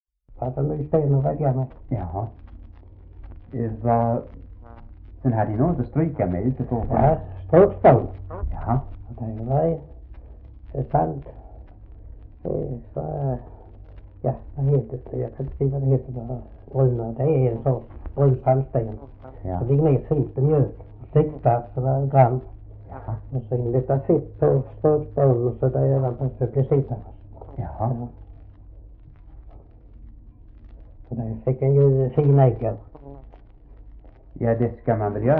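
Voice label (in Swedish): Oderljunga Skåne, dialekter; Folkminnen; Svenska sagor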